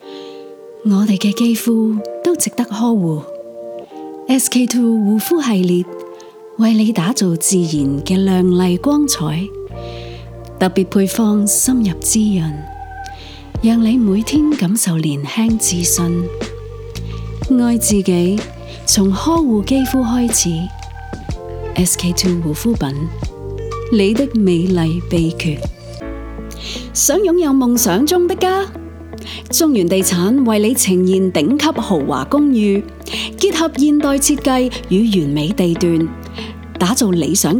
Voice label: Canto vo